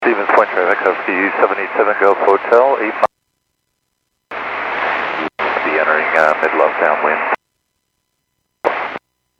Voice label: Airports 122.7, Radio; KSTE